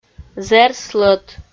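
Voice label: "зэр-слӧт", Komi, female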